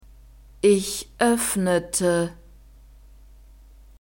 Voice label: "ich oeffnete", German; verbs past tense regular

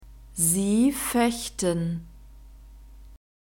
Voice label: "sie fechten", German; verbs present tense irregular

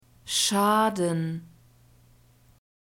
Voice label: "Schaden", German; masculine

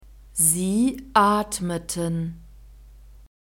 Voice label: "sie atmeten", German; verbs past tense regular

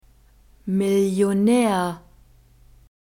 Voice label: "millionaer", german; masculine

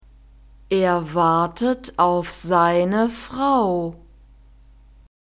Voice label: "er wartet auf seine frau", German; nouns declension accusative

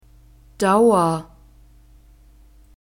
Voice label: "dauer", German; nouns gender feminine

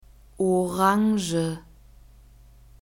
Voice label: "Orange", German; fruits

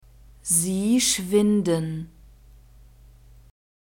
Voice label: "sie schwinden", German; verbs present tense irregular

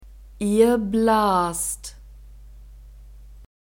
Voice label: "ihr blast", German; verbs present tense irregular

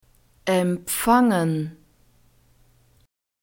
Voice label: "empfangen", German; verbs present tense irregular

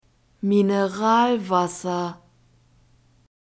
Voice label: "mineralwasser", german; soft drinks new words

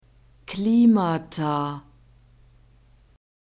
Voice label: "klimata", german

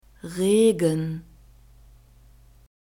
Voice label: "Regen", German; masculine

